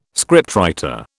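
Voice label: "scriptwriter", British English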